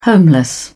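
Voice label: "homeless", British English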